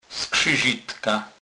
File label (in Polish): "skrzyzitka", kurpie